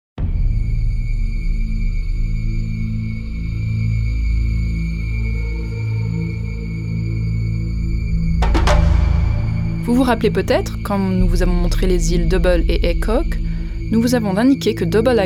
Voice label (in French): Rainbow Serpent French; Welcoming International Guests